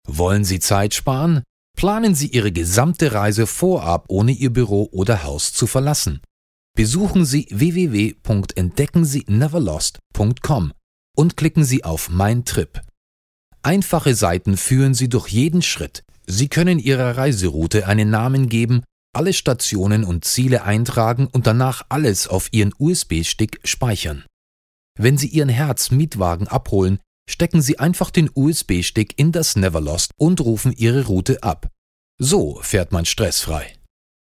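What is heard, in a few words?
German, male, corporate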